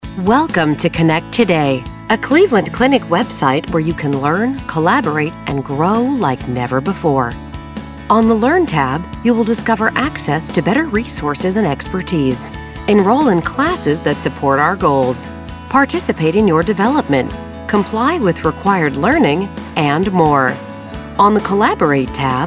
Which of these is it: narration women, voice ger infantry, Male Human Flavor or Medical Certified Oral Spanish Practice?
narration women